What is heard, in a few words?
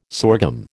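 "sorghum", American English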